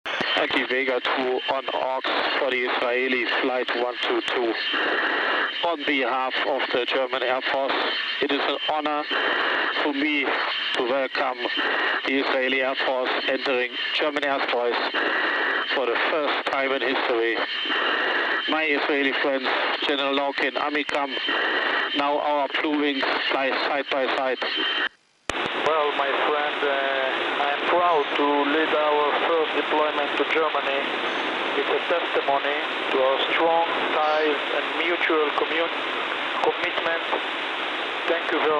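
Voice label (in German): funkspruch begrüßung; durch insp lw und antwort insp iaf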